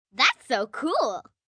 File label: Thats So Cool, Reaction, 1 girl, 9 yrs.; Talking